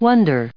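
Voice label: "wonder", English, US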